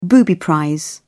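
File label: "booby prize", British English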